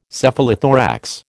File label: "Cephalothorax", American